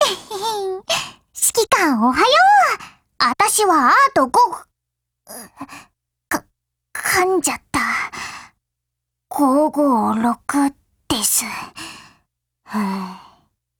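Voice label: GAIN, Japanese; ART556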